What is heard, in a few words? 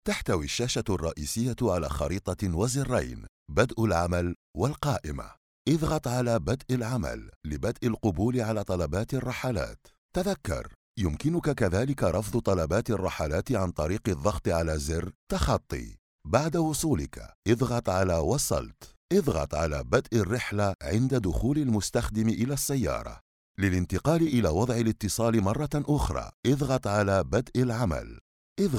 arabic e-learning